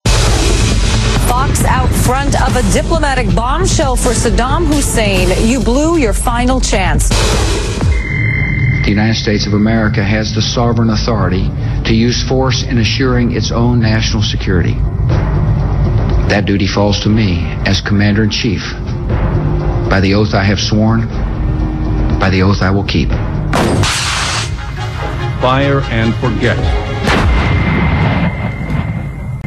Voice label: Irak spots tv

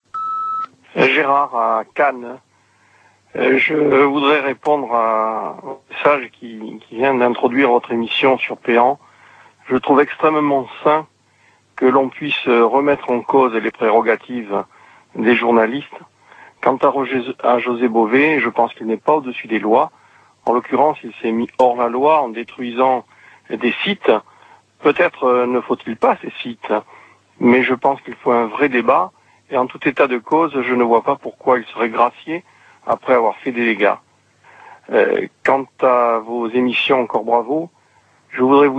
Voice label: Irak repondeur

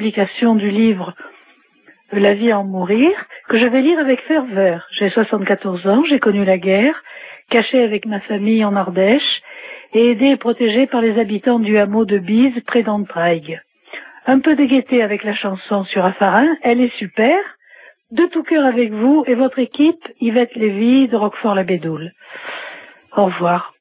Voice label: Lettres fusilles repondeur

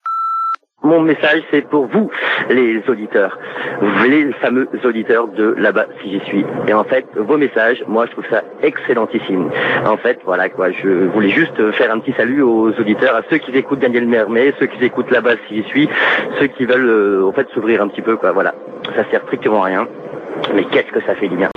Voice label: Coucou répondeur

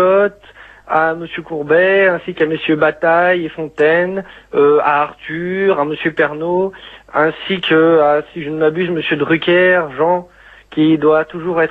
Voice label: Racolage mediatique repondeur

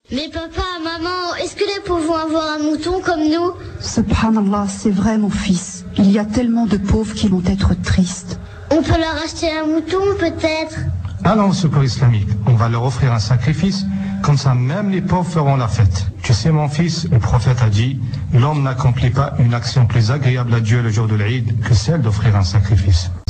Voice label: Pelerinage pub radio

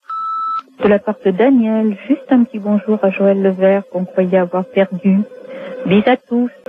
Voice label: Coucou répondeur

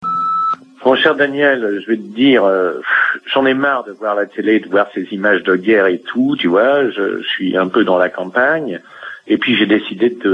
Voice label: God bless USA repondeur

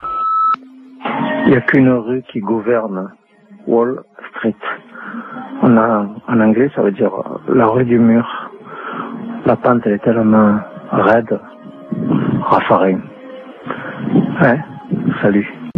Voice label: Wall Street repondeur

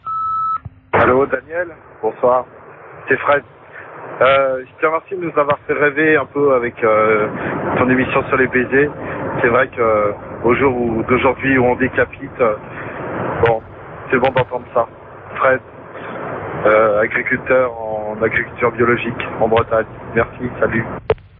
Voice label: repondeur; 1er baiser

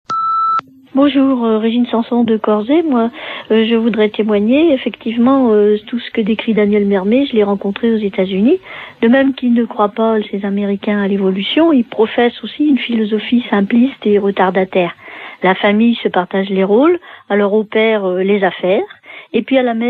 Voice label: Integrisme US repondeur

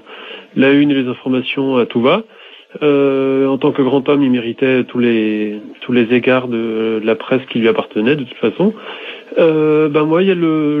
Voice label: Mort Lagardere repondeur

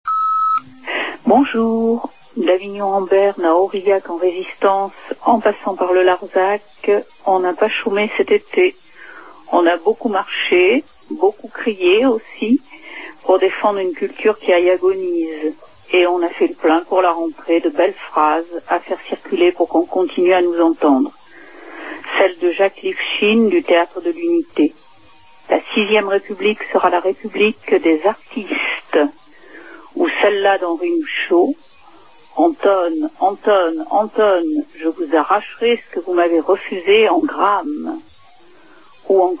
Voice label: Intermittents repondeur

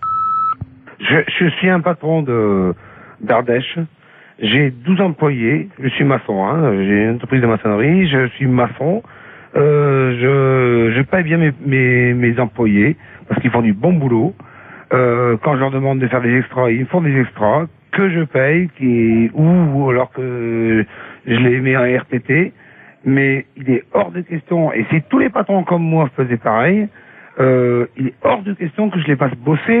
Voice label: Jour ferie annule repondeur

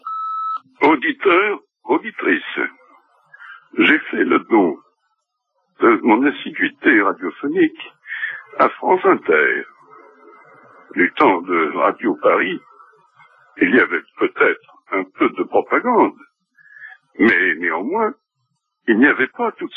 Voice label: Pub répondeur; France Inter

